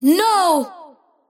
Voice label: kid lose; invoker